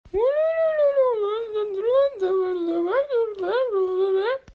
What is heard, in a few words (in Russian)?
житель из майнкрафта звуки жителя из майнкрафта; minecraft